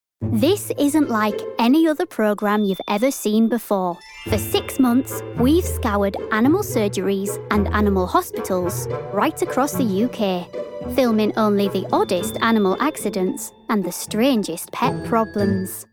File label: Narration, yorkshire